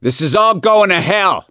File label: reaction negative, voice; gambler